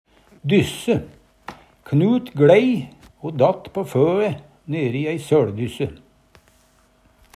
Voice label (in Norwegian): "dysse", Numedalsmål